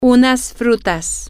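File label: some fruit, Spanish, female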